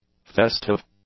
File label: "festive", english; adjectives